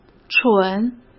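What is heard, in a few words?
"蠢", Mandarin Chinese; chinese flashcards; hsk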